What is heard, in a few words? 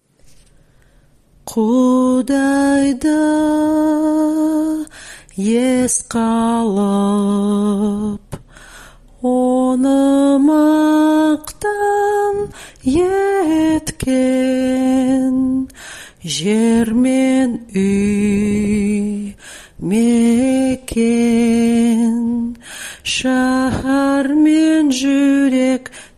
soprano pronunciation